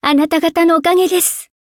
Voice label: victory, ja